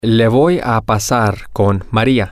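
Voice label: Spanish, male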